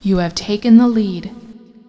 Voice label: taken lead, female; feedback